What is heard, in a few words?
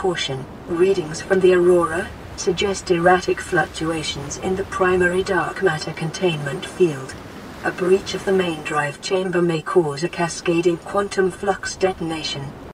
Aurora AI Second Speech